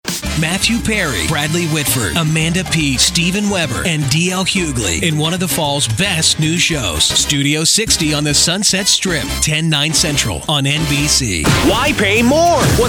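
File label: Commercial, real people male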